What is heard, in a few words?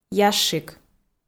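"ящик", Komi-Zyrian, female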